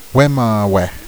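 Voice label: "fetishes", Zuni language; Fetishes (Wemawe)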